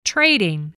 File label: "trading", voice